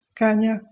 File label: "kaNa", Slovak